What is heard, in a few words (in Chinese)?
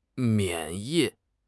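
"免疫", Chinese; HSK6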